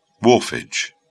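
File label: "wharfage", British English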